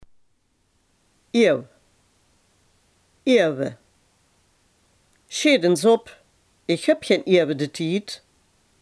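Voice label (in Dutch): "eeuw" Limburgish dialect word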